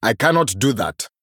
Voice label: Male Troll ERROR PLAY

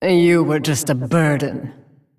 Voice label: Female Shivarra

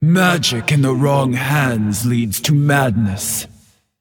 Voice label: Female Dragon Play

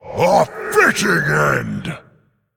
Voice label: Male Faceless Attack

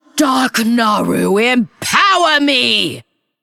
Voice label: Female Undead In Game Boss Uses Hero Power

